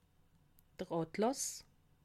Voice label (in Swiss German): "atlas", alsace